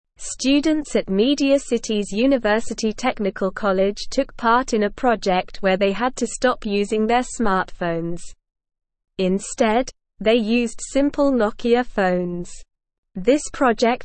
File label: English Lower Intermediate SLOW Reading